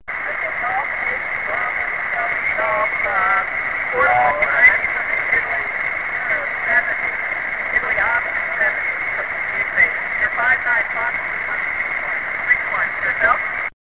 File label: qso